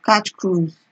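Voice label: "catch curise", Nigerian Pidgin, female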